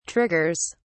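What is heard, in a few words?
"triggers", British English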